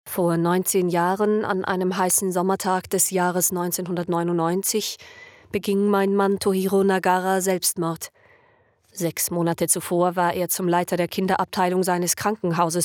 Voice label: Voice-Over